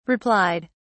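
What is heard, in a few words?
"replied", British English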